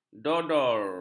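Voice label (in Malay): "dodor", Malay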